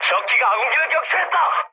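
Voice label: Korean aircraft destroyed mood medium; speech; War Thunder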